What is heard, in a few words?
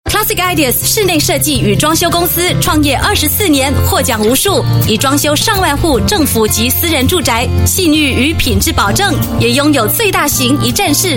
Mandarin; Classic Ideas